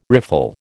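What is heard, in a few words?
"riffle", American English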